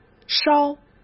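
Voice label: "稍", Mandarin Chinese; chinese-flashcards; hsk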